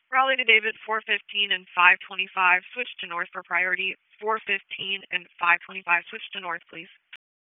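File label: Raleigh Police Southeast District Dispatch, p25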